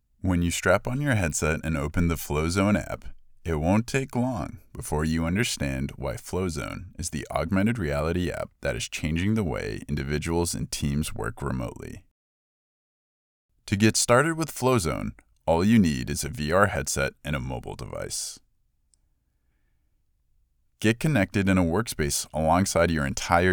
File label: Instructional